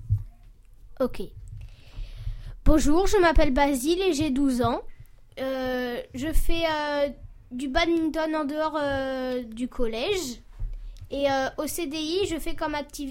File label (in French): presentations equipe radio